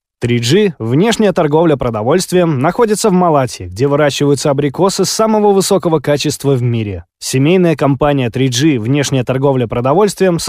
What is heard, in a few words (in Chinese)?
俄语男声 宣传片